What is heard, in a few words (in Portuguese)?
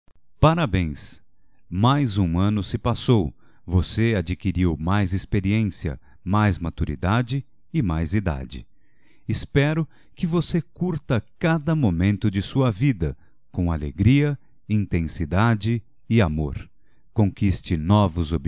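Anivers neutro, Só Voz, masculino; Telemensagens; Telemensagens 2017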